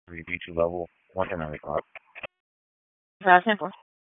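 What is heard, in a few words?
Dispatch 1, P25; WSO DISP 1; wake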